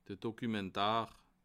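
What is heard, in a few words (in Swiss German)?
"dokumentar", Bas Rhin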